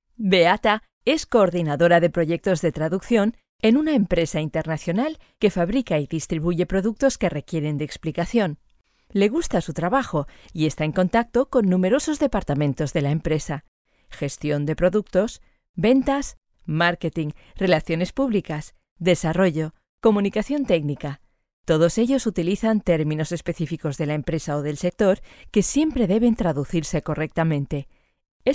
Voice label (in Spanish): es company; sdltrados